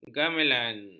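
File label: "gamelan", Malay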